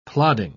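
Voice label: "plodding", British English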